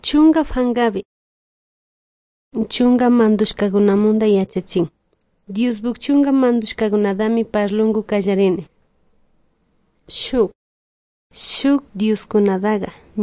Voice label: good news quichua de salasaca

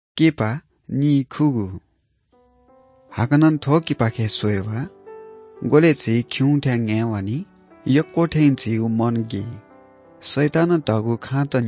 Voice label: good news newari bhaktapur

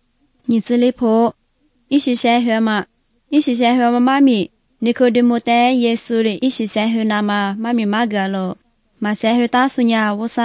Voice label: good news lisu southern, drama

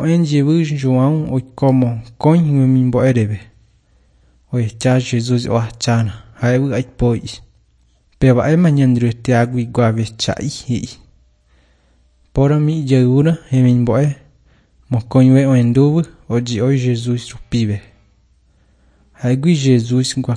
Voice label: john guarani mbya, bible

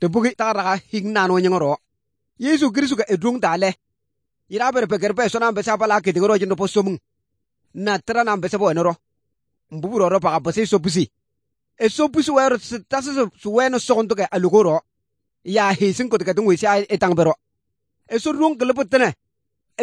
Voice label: good news, kabiye bible

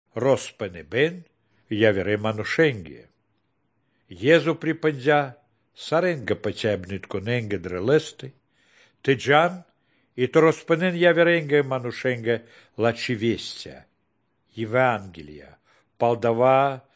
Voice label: good news baltic romani

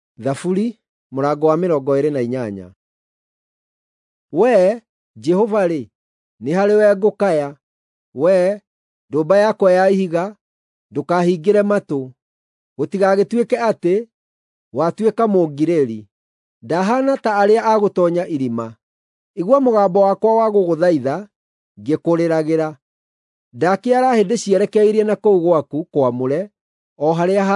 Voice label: psalms, gikuyu drama

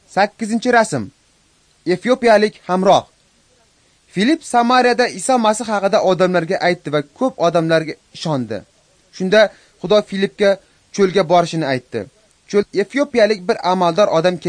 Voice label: uzbek northern; acts of the holy spirit

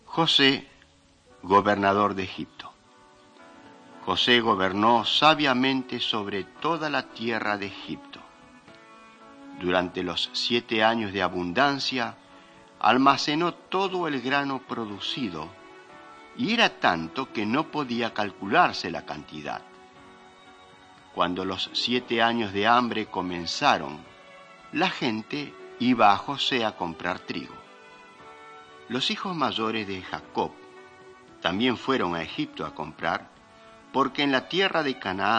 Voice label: mighty men of god spanish latin america

